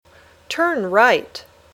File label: "Turn right", English